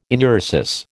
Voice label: "enuresis", American